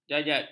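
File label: "jajat", Malay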